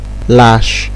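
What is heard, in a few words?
"lash", Nigerian Pidgin, male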